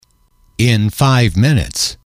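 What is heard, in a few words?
in five minutes, male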